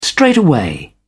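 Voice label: "straightaway", British English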